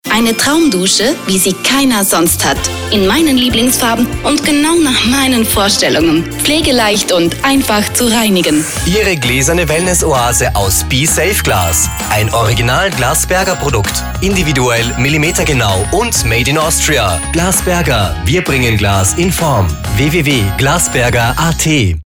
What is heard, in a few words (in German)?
Werbespot; Glas Berger 2014